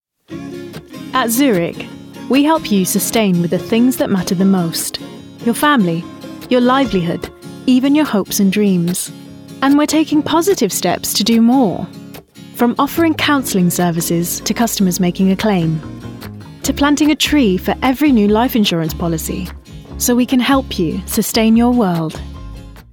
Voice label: female English commercial; English northern accents

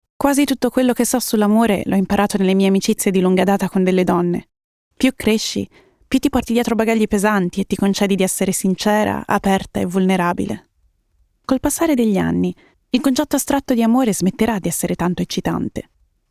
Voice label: female italian narration compilation

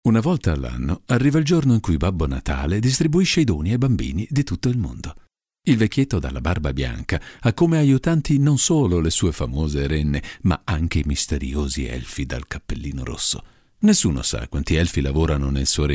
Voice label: male italian corporate compilation; voices

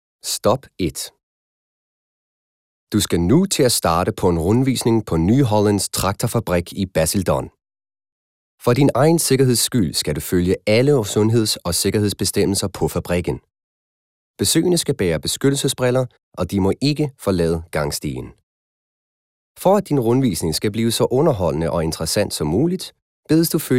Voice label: voices male danish compilation

